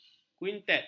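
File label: "kuintet", Malay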